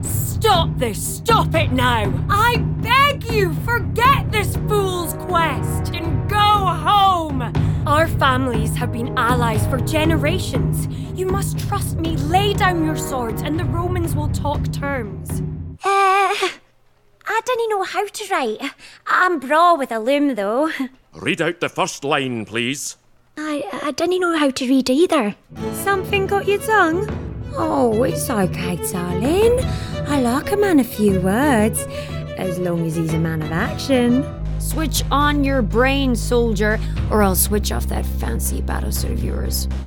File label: english scottish video games